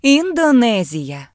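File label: "indonesia", Ukrainian; gcompris; geography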